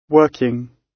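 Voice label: "working", British English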